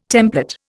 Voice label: "templet", Indian

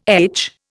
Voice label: "etch", Indian

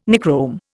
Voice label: "Nichrome", Indian

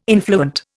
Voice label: "influent", Indian

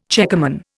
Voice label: "checkerman", Indian

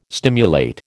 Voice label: "stimulate", American

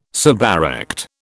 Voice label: "suberect", British

